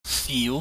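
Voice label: "siu", Cantonese, mid rising tone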